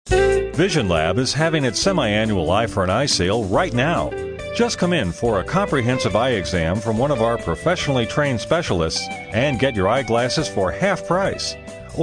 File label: voice over narration; Commercial Voice over Eye Care spot